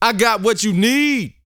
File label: BOAST F; Vocal Planet; R&B Dance